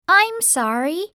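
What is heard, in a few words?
"sorry", English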